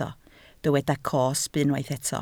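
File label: "cosb" Welsh, spoken word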